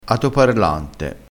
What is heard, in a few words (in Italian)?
"atoparlante", crespinese